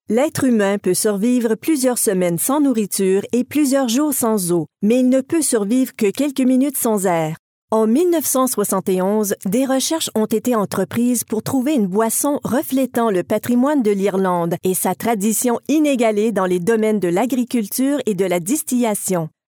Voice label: french canadian female corporate narration